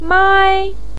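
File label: "mai", Mandarin Chinese first tone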